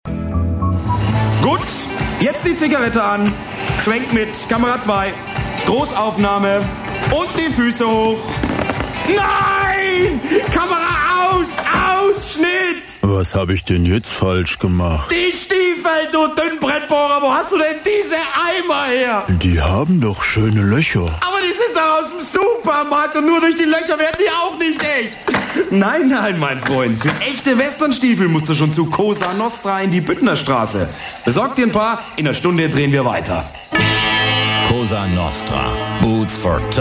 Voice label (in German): Werbung